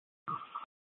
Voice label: Fire Departments, scanner